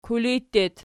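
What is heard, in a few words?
"kulij", locative, Archi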